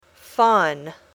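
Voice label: "fun", English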